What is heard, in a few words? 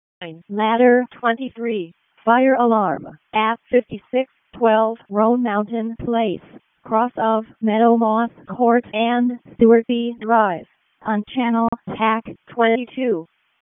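Fire Department Alert, p25; RFD ALERT; wake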